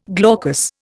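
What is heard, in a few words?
"glaze", Indian; Dictionary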